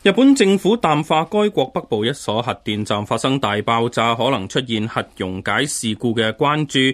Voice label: cantonese